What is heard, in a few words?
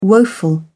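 "woeful", English, British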